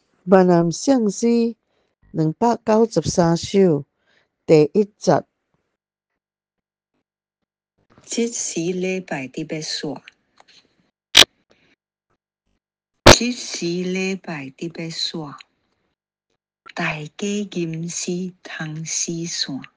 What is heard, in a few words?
Reading; Now The Hour of Worship is O'er; Hymns for Jubilation